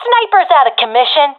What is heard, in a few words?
sees sniper killed, professional fem; Counter Strike Model Pack; CS:GO